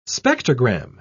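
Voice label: "spectrogram", English, British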